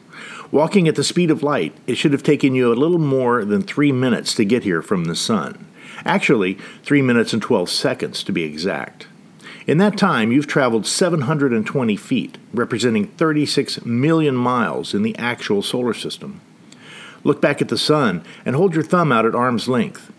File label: Mercury Narration